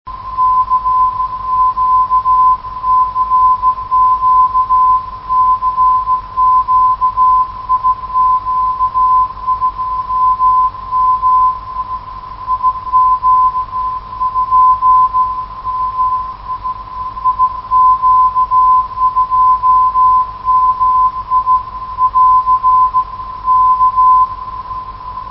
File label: calling, 500khz